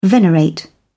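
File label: "venerate", British English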